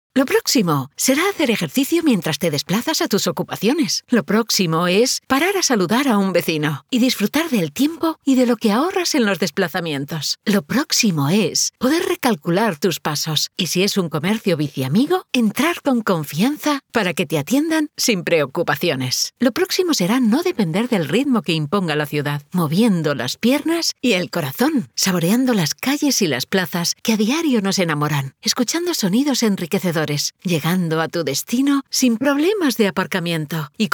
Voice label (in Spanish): SPOT RADIO; LORCA BICIUDAD